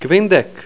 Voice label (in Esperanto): "kvindek", Esperanto